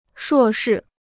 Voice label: "硕士", Chinese

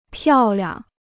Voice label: "漂亮", Chinese

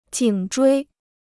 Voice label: "颈椎", Chinese

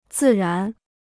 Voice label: "自然", Chinese

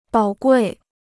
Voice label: "宝贵", Chinese

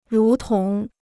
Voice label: "如同", Chinese

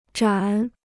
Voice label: "盏", Chinese

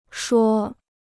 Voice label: "说", Chinese

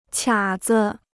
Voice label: "卡子", Chinese

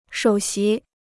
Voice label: "首席", Chinese

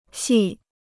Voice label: "戏", Chinese

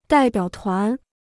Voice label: "代表团", Chinese

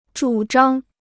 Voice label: "主张", Chinese

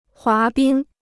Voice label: "滑冰", Chinese

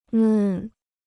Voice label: "嫩", Chinese

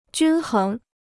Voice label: "均衡", Chinese

